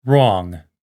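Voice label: "wrong", English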